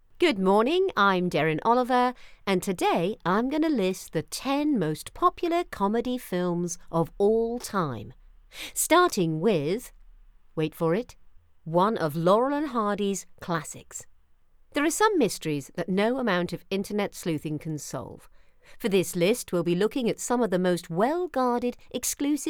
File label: Factual narration